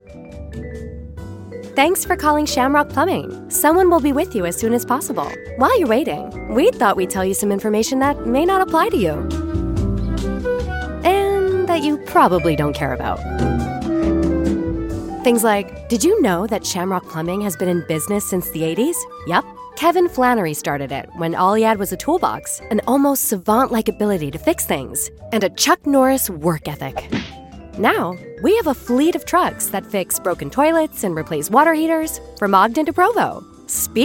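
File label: english american interactive voice response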